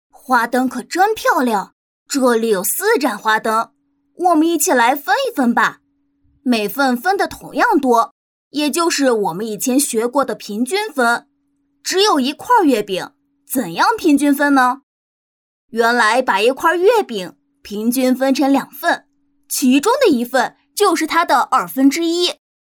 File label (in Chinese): 课件男童